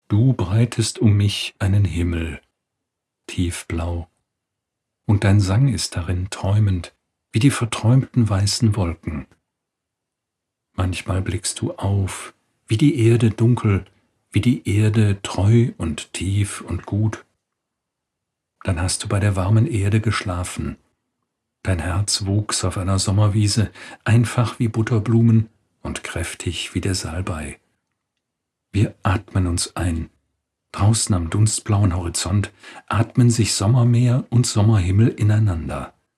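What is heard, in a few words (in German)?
LYRIK, Hörbücher; Max Dauthendey; Sommerhimmel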